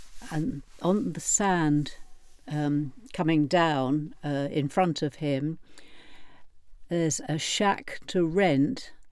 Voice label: 72 year old woman